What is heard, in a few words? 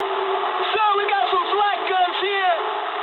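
English damaged aa mood low; speech; War Thunder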